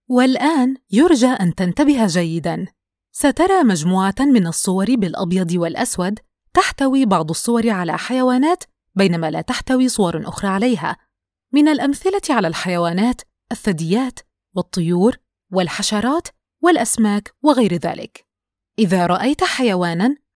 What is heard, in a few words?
Training Animation Video, E-Learning